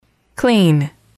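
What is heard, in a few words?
"clean", English, female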